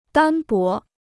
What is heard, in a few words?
"单薄", Chinese